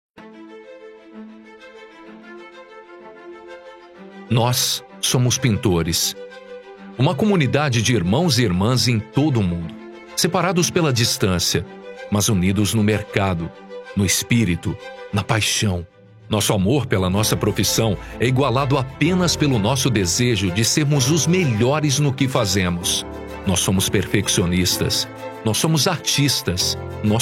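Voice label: doblaje, Portuguese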